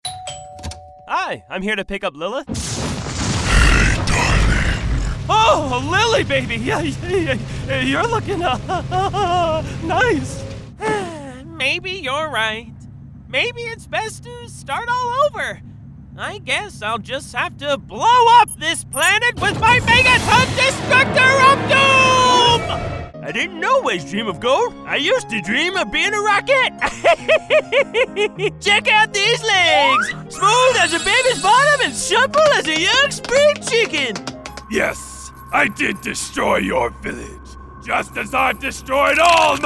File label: animation character